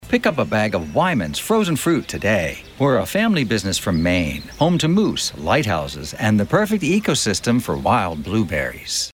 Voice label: Commercial Fruit